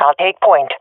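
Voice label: request follow me, swat female; Counter Strike Model Pack; CS:GO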